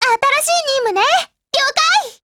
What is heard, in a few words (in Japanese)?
ATTACK JP